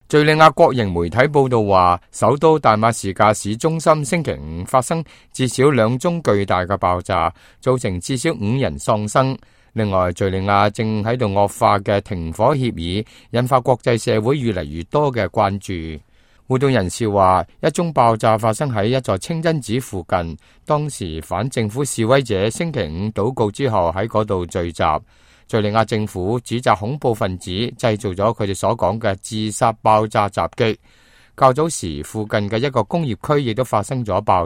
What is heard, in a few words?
cantonese, news